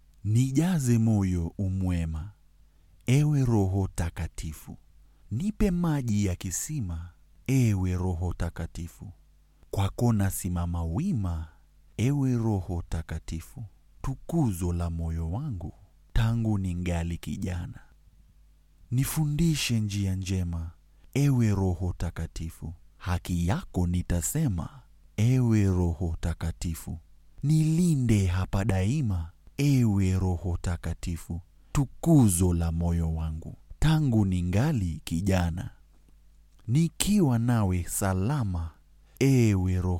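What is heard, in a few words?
Swedish, Narrative; GoLocalise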